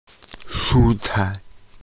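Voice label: "shu1 cai4", Mandarin Chinese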